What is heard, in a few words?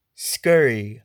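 "scurry" English, US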